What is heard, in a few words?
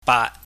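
"baat", Cantonese, mid level tone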